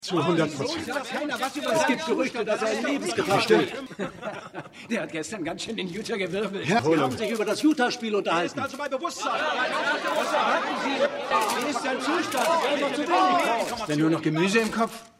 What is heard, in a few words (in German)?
Reporter; Scott; Winning Time